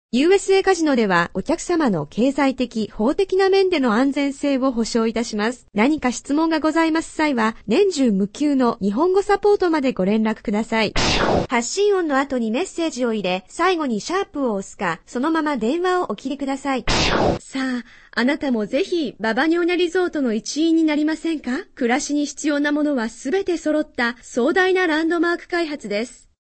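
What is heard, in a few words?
Japanese female voice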